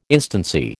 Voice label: "instancy", American

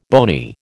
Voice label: "bony", American

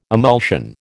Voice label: "emulsion", American

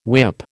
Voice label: "whip", American

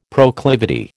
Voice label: "proclivity", American

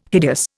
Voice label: "hideous", Indian

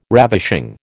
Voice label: "ravishing", American

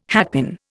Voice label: "hatpin", Indian

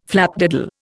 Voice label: "flapdoodle", Indian

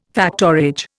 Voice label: "factorage", Indian

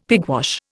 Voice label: "pigwash", Indian; Dictionary

